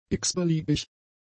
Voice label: "x-beliebig", German; adjectives

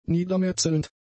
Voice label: "niedermetzelnd", German; adjectives

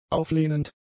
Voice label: "auflehnend", German; adjectives

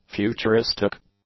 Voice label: "futuristic", English

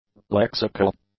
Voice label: "lexical", english

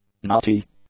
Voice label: "nutty", english; adjectives

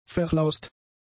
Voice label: "verlaust", German; adjectives

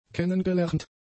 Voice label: "kennengelernt", German; adjectives

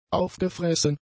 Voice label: "aufgefressen", German; adjectives

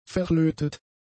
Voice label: "verlötet", German; adjectives

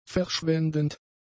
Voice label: "verschwendend", German; adjectives

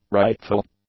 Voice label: "rightful", English; adjectives

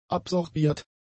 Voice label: "absorbiert", German; adjectives

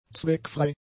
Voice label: "zweckfrei", German; adjectives

